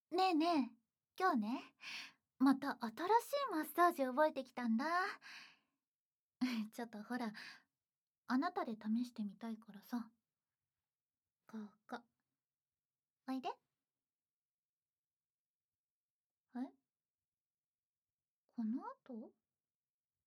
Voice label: フリートーク

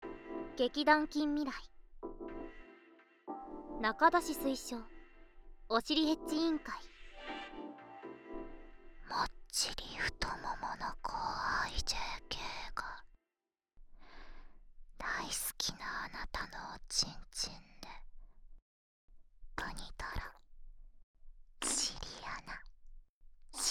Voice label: タイトルコール

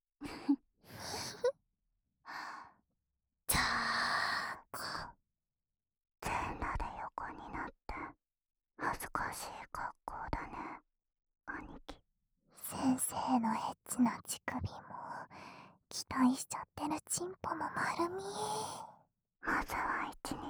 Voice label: W密着囁きと耳舐め; W密着囁きと耳舐めマゾ煽り

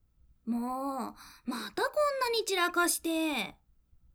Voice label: ゴミ箱を空に, システムメッセージ

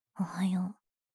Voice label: "おはよう", システムボイス

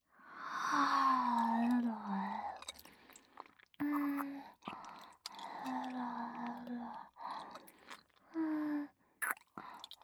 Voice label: 効果音なし, ASMR

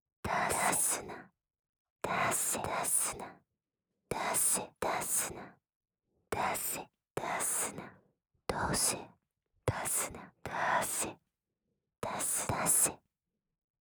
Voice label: 囁き連呼 出せ×出すな

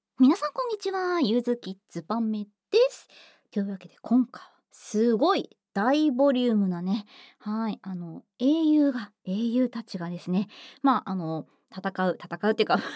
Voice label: フリートーク

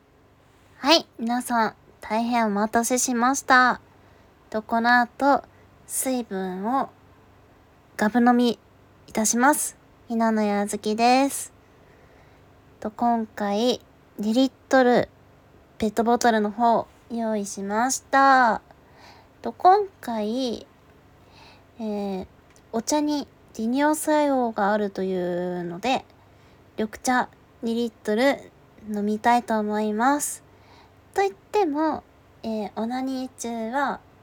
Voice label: 飲み物がぶ飲み雑談, ASMR

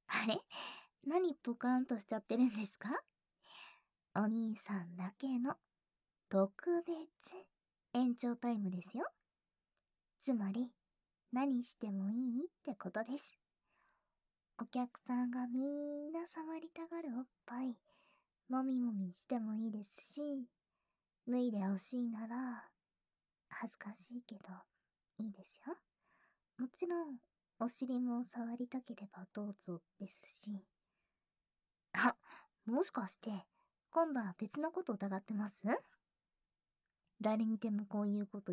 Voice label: 鼠径部マッサージ, ASMR; 自分で鼠径部マッサージ

